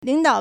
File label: "ling3dao3", Chinese